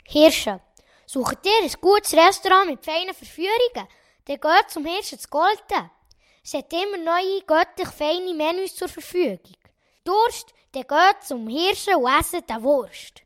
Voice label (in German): Werbung; Restaurant Hirschen; Golaten